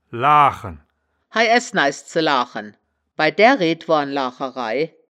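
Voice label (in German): "laachen", wittlicher woerterbuch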